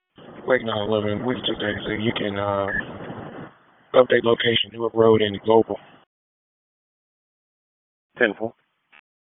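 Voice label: Sheriff's Office Dispatch 1, p25; wake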